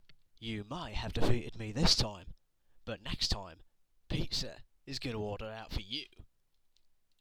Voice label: Cockney Geezas Gettin Tired